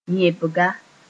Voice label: "yẽbga", Mooré

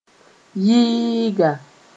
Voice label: "yɩɩga", moore

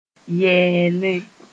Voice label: "yɛɛlẽ", Mooré

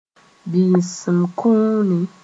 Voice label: "bĩisim kũuni", Mooré

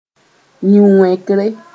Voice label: "yĩn-wẽkre", Mooré

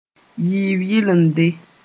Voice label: "yiib yɩlende", mos-en; double

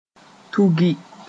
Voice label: "tugi", moore

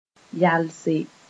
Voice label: "yalse", Moore

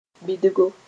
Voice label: "bɩdgo", Mooré; sorrel

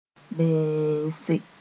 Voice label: "bẽese", Mooré

